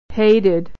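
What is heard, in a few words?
"hated", American English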